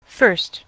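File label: "first", US English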